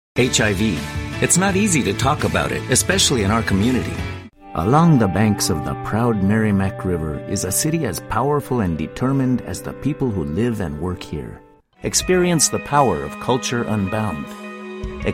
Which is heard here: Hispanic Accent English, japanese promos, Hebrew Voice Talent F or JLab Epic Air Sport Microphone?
Hispanic Accent English